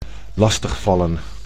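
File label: "lastig vallen", Dutch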